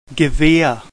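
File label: "Gewehr", German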